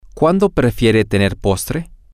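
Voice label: when do you prefer to have dessert, Spanish, female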